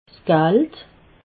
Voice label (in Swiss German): "gald", alsace